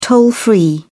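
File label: "toll-free", British English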